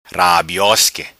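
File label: "Rabiosque", patua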